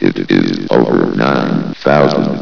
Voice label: chat